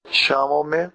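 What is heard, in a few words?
my name is, portuguese brazilian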